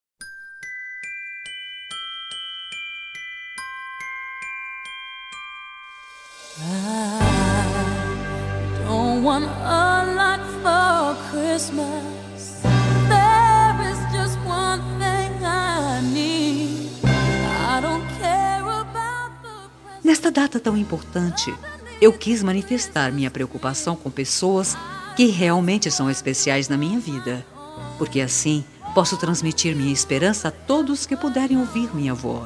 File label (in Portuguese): FELIZ NATAL FEM, Telemensagem de Natal, Voz Feminina